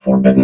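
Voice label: "forbidden", robot voice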